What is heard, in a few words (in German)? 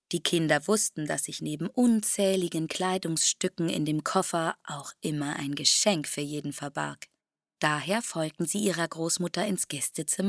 hörbuch Hörprobe